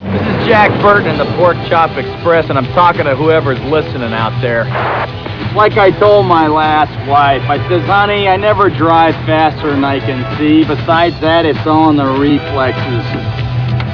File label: Monologue